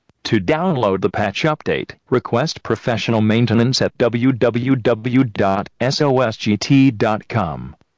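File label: Skype Phishing Call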